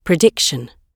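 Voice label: "prediction" spoken, British English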